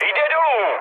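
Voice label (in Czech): Czech aircraft destroyed, mood medium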